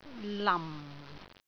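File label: "lam4", Cantonese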